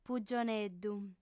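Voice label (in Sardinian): "pugioneddu", female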